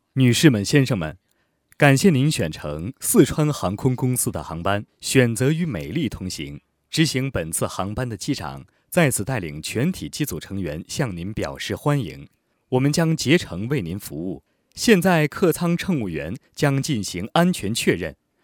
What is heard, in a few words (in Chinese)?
航空机舱内广播词; 四川航空